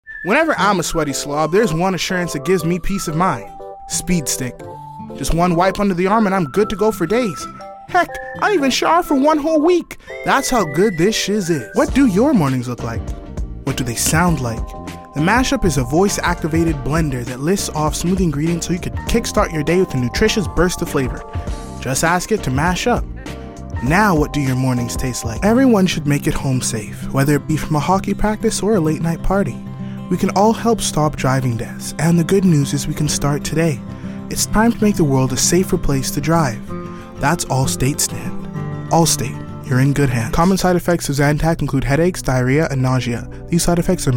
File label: Commercial VO